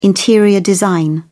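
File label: "interior design", English, British English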